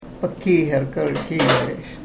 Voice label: karuk